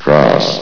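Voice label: "cross", announcer voice; Source Engine; bshift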